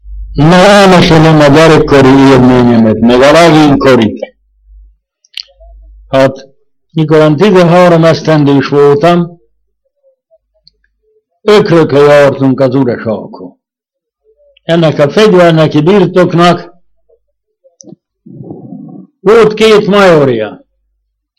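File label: dialect collection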